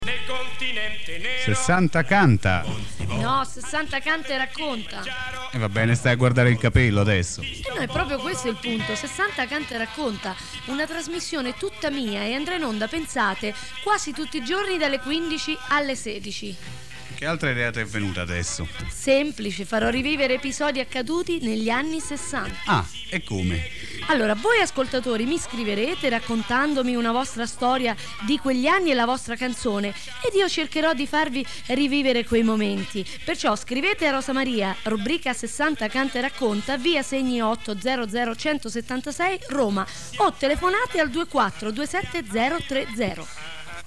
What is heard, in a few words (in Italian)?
radio promo; 60 canta e racconta